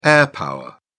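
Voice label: "air power", British English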